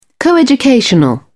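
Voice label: "coeducational", English, British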